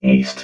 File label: "east", robot vox